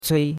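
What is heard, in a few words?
"cui1", Chinese; Cours-de-Chinois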